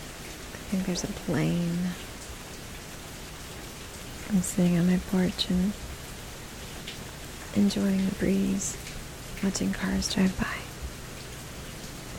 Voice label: F4A Rainy Day Reminiscing sleepy morning voice ramble storytelling rain city sounds; relaxation; stereo